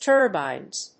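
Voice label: "Turbines", English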